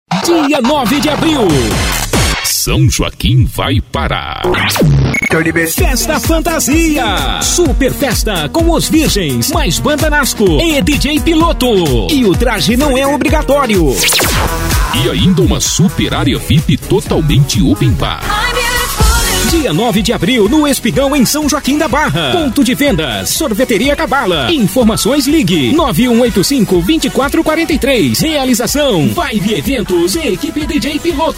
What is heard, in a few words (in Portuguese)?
voz masculina